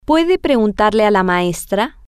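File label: can She Ask The Teacher, female, spanish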